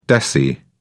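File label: "deci", English, British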